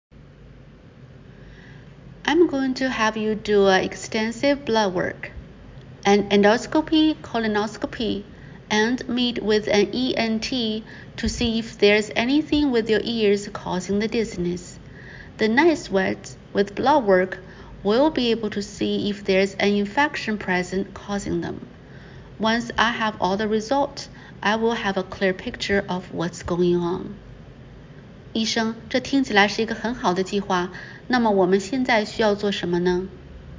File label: Medical Certified Oral Mandarin Practice